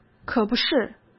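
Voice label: "可不是" Mandarin Chinese; hsk; chinese-flashcards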